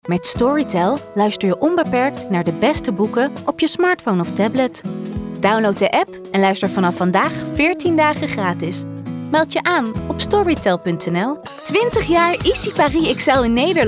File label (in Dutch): stemdemo met muziek